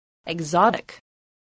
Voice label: "exotic", voice

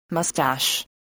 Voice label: "moustache", voice, spelling

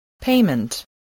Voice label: "payment", English, voice

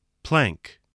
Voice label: "plank", English

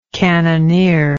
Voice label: "cannoneering", English

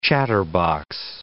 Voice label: "chatterbox", English

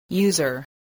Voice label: "user", English

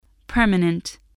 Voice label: "permanent", voice, English

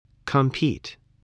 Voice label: "compete", english

